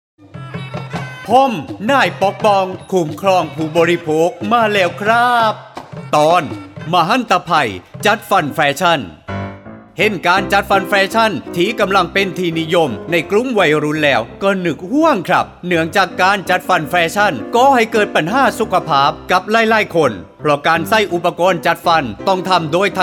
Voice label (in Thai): สปอตวิทยุ ภาคใต้; สื่อประชาสัมพันธ์; สคบ.; สำนักงานคณะกรรมการคุ้มครองผู้บริโภค